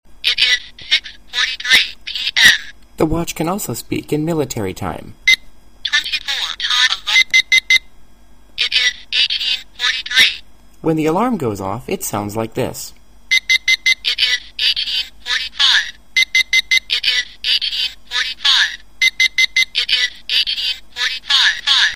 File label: ladies talking watch